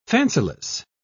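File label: "fanciless", British English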